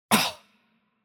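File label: Hurt Light, Chinese; bloom minigame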